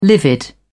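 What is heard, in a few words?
"livid", British English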